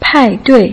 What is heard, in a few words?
"pai4 dui4", Putonghua